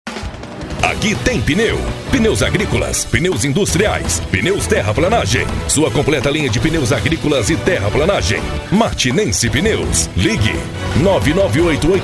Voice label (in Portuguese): ANIMADO